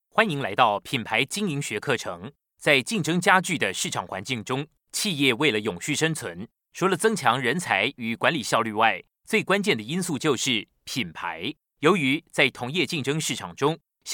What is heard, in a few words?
Chinese Taiwanese